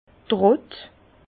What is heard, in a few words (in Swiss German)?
"trott", Bas Rhin; vin; pressoir